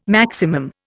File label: "maximum", Indian